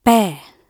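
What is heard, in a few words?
"bear", British English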